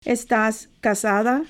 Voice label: Are you married female Esta casada